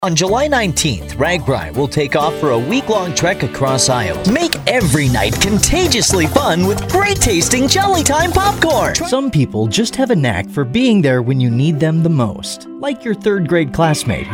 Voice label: Commercial Male, Commercial Voiceover, TV and Radio